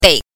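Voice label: "dei", Mandarin Chinese pinyin, neutral tone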